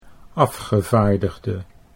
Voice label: "afgevaardigde", Dutch